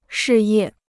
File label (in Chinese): "事业", Chinese